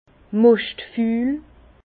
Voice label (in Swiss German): "moschtful", Bas Rhin; vin; baies trop mûres